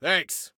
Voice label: Respond Thanks, Soldier Male; Soldier Male 02